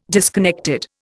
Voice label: "disconnected", Indian

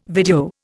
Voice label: "video", Indian

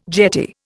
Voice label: "jetty", Indian

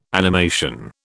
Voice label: "animation", British

